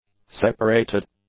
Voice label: "separated", English; adjectives

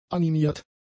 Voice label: "animiert", German; adjectives

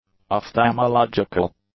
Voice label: "ophthalmological", English; adjectives

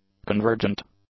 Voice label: "convergent", English; adjectives

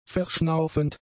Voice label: "verschnaufend", German; adjectives

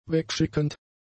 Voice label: "wegschickend", German; adjectives

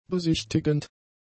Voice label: "besichtigend", german; adjectives

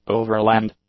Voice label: "overland", English; adjectives

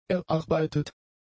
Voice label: "erarbeitet", German; adjectives

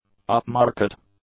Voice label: "upmarket", english; adjectives

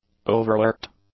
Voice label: "overworked", English; adjectives

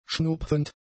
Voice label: "schnupfend", German; adjectives

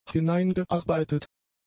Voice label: "hineingearbeitet", German; adjectives

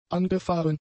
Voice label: "angefahren", German; adjectives

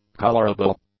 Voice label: "colorable", English; adjectives

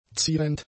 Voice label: "zierend", German; adjectives

